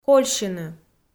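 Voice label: "кольсьыны", Komi, female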